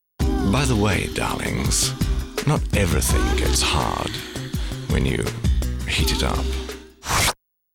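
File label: uk Englisch, British English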